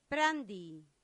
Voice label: "prandii", Latin; santillana